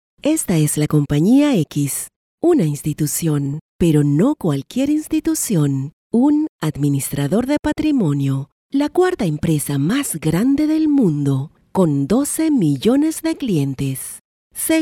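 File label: Latin American Spanish female Corporate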